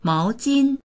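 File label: "mao2jin1", Mandarin Chinese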